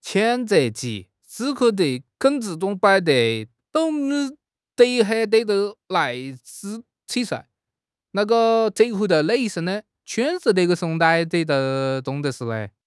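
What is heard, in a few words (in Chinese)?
"谴责", Chinese; HSK; HSK6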